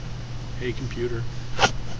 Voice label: hey computer, en